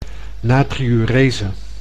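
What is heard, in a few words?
"natriurese", Dutch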